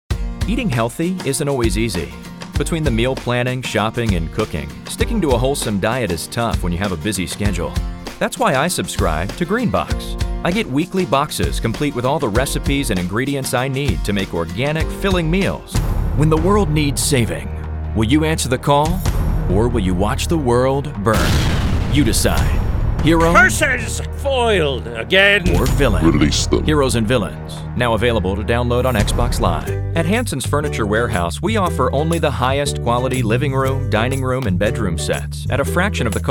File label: Commercial